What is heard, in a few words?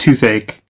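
"tones", US English word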